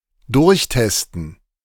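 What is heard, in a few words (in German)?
"durchtesten", German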